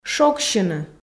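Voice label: "шогсьыны", Komi, female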